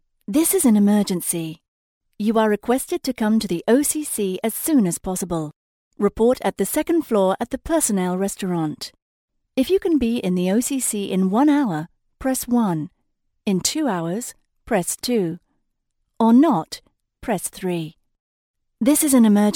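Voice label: English British, female, english british voice over talent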